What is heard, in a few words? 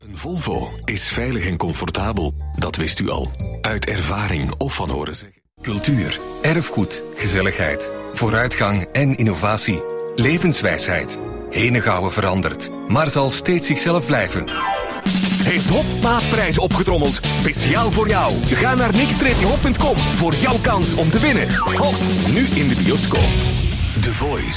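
Dutch Flemish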